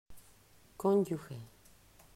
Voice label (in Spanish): "Conyuge", pronunciacion; mausschool